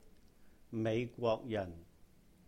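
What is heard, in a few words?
"美國人", Cantonese; American; Méih-Gwok-yahn; mei5 gwok3 jan4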